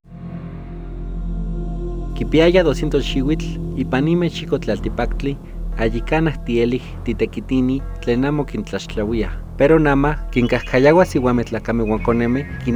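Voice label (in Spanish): nahuatl de la huasteca veracruzana; Trata Sexual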